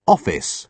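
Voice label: "office", British English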